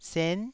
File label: "sen9", taiwanese syllable